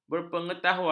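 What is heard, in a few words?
"berpengetahuan", Malay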